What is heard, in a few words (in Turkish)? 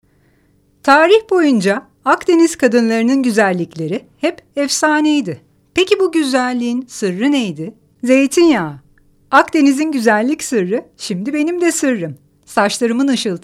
reklam